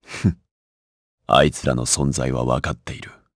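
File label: vox dia Japanese; Lusikiel; kingsraid; jp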